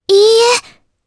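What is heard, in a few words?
Deny, Japanese; Mirianne; kingsraid; jp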